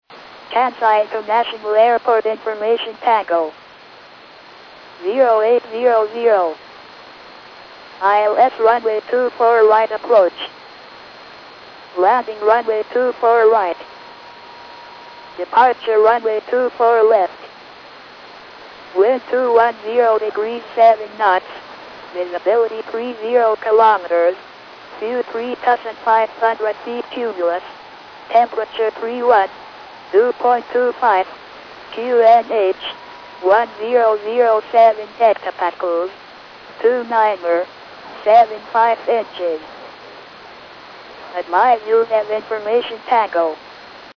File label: ATIS, Japan; kix